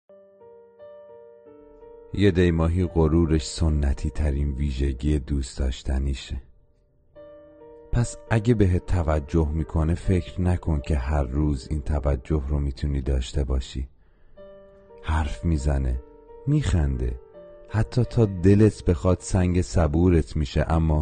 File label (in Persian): دکلمه متولدین دی ماه